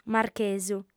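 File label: "marchesu" Sardinian, female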